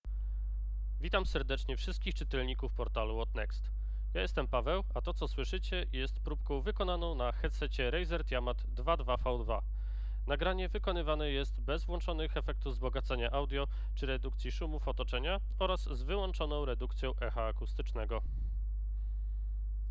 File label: mic test; razet tiamat 2.2